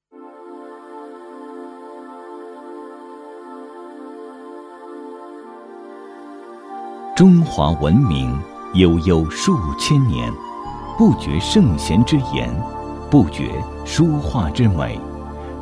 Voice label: 男 纪录片 深沉 厚重 讲解; 纸的故事

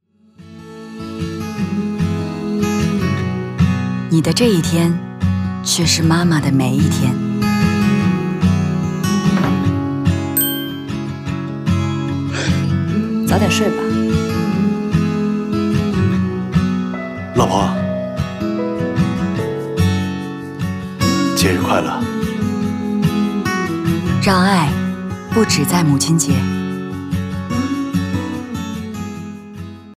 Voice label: 女 TVC; 宝洁-母亲节的愿望

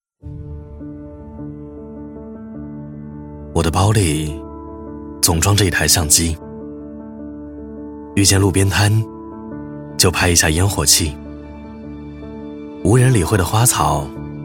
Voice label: 男 【独白】素人-磁性-自然-轻松; 摄影师

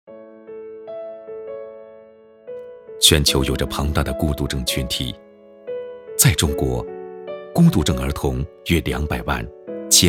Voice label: 男 公益广告; 儿童孤独症

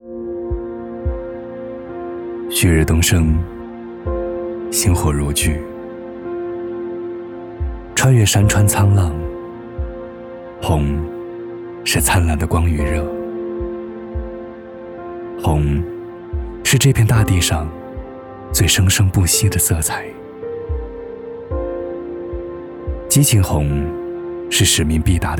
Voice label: 男; 勇攀高峰

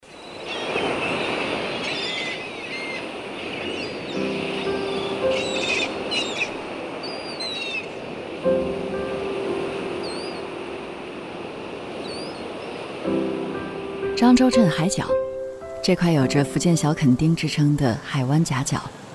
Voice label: 女 纪录片; 湖南卫视《路过零点》-灯塔守望者

